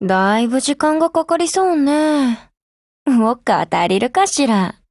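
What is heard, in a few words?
OPERATION BEGIN Japanese, Voice; GF Alarm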